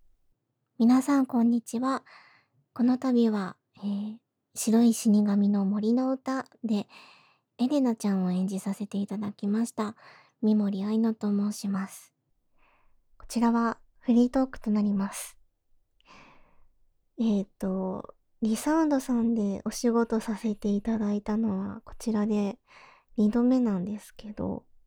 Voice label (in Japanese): フリートーク